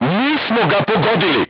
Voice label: voice message armor not pierce, crew tanks serbian